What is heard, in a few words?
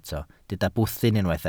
"bwthyn", Welsh